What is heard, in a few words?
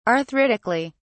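"arthritically", English, British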